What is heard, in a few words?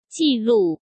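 "纪录" Mandarin Chinese; chinese flashcards; hsk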